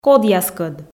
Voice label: "кодъяскӧд", Komi

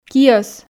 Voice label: "киӧс", Komi

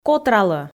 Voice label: "котралӧ", Komi

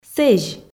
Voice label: "сэзь", Komi

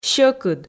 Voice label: "сьӧкыд", Komi

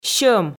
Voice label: "сьӧм", Komi

